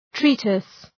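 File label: "treatise", English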